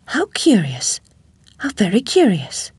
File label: chitchat; svencoop; Alice